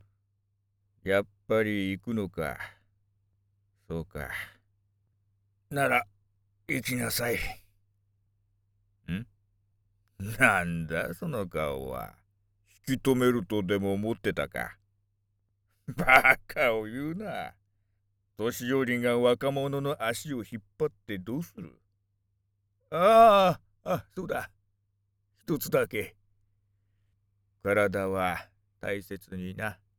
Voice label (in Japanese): セリフ, 老人; 「旅立つ孫を見送って」